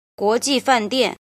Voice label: "jin", Chinese

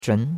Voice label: "zhun2", chinois; Cours de Chinois

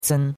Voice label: "cen1", chinois; Cours-de-Chinois

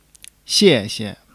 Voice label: "xie4 xie", Chinese

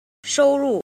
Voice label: "jin", Chinese

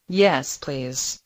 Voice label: Mandarin Conversation

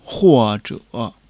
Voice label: "huo4 zhe3", Chinese

